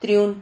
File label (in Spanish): "triun", Silabas